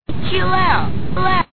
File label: chill